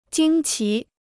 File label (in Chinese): "惊奇", Chinese